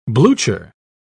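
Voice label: "blucher", British English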